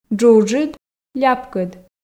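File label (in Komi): "джуджыд ляпкыд", Komi, female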